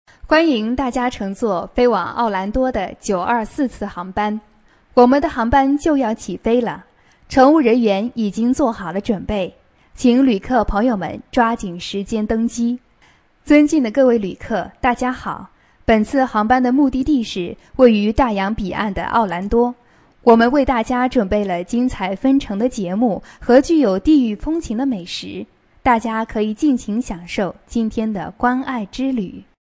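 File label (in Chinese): 女话术 航班广播提示 清晰自然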